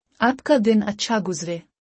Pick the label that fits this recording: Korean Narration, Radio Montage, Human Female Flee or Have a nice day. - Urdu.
Have a nice day. - Urdu